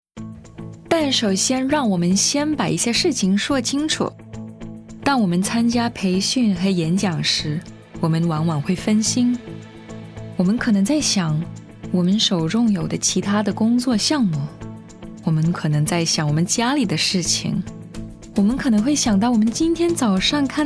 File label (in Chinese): Mandarin